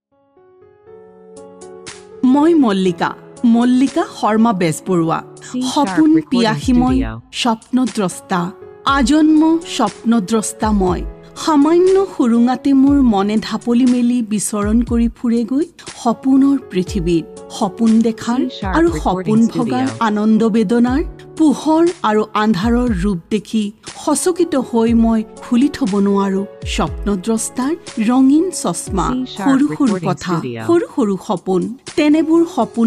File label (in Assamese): Assamese Female